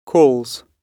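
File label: "calls", British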